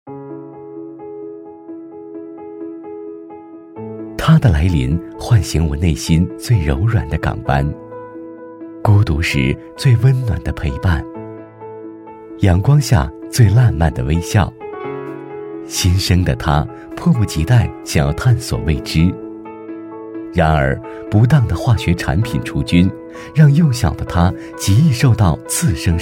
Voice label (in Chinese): 男 广告